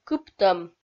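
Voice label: "кыптӧм", Komi, female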